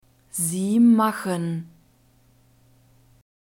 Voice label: "Sie machen", German

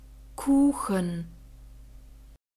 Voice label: "kuchen", german; phonetic script grouped consonants

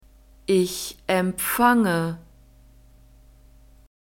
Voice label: "ich empfange", German; verbs present tense irregular

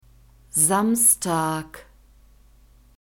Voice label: "Samstag", German; alphabet

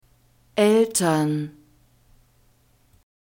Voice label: "eltern", German

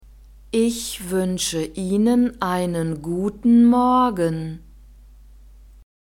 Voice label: "ich wuensche ihnen einen guten morgen", German

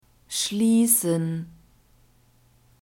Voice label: "schliessen", German; verbs present tense irregular

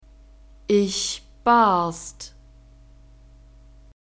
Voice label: "ich barst", German; verbs past tense irregular

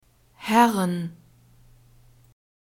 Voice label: "herren", German; alphabet

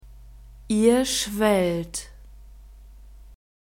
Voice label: "ihr schwellt", German; verbs present tense irregular

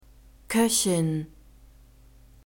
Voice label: "koechin", german; phonetic script grouped consonants

